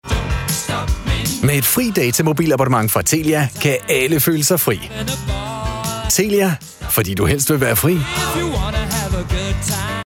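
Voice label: Danish, male, Commercial fresh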